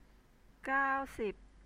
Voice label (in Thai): "gao sib", Thai